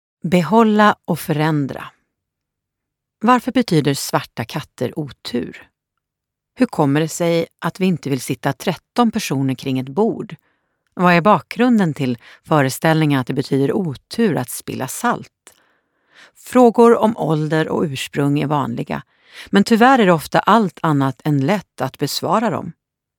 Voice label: SWE Audiobook f